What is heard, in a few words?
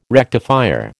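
"rectifier", American English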